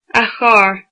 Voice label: his, irish

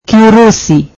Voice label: swahili Russian

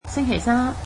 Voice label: Wednesday, cantonese

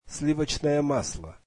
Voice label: russian butter

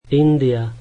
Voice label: slovak India

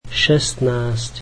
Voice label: slovak sixteen

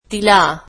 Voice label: marathi her

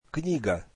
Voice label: russian book

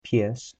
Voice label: "dog", polish

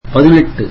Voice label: tamil eighteen

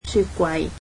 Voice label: "refrigerator", cantonese

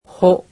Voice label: "ho", japanese; alphabet

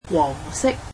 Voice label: "yellow", Cantonese; adjectives

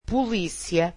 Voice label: "police", portuguese

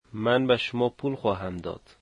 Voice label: I will give you money, Dari

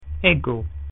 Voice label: "I", latin

